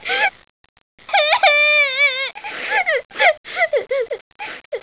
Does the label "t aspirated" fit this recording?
No